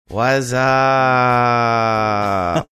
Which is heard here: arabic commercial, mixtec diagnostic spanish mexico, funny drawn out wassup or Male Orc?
funny drawn out wassup